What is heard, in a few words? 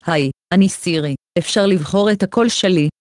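Hebrew; iOS 26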